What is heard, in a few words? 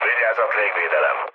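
Hungarian damaged aa mood low, speech; War Thunder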